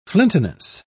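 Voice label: "flintiness", British English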